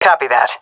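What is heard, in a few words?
agree, female; vo; Counter Strike Model Pack; CS:GO; seal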